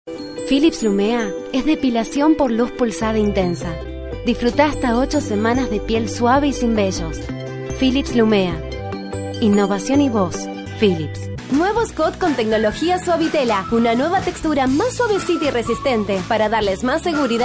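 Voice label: latam female commercial; voice demos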